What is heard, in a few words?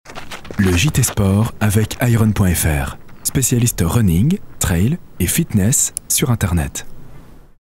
french france male